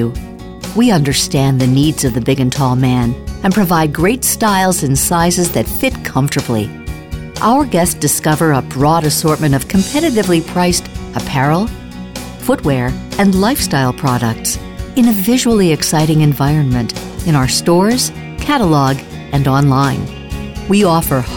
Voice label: casual male